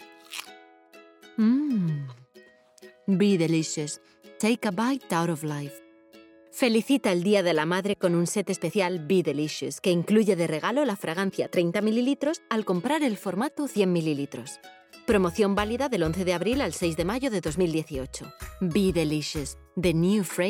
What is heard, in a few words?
Spanish EU